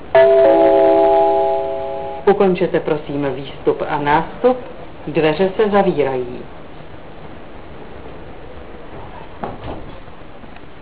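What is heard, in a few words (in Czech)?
odjezd, Vlaková hlášení, Pražské metro; Ukončete, prosím, výstup a nástup, dveře se zavírají. Příští stanice Malostranská.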